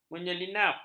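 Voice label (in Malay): "menyelinap", Malay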